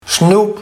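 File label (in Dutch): "snoep", Barghs dialect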